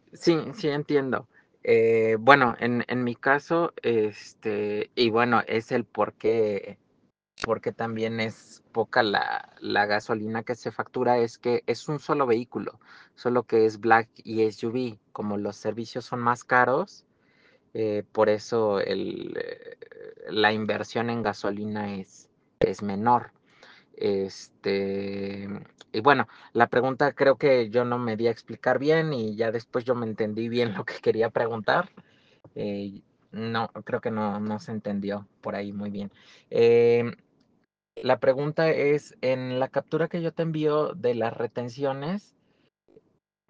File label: whatsapp ptt